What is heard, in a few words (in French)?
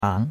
"ang2", chinois; Cours-de-Chinois